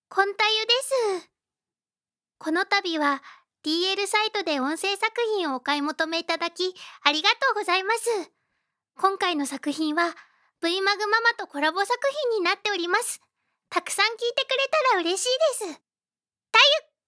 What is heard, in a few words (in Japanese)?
ボイスメッセージ, ASMR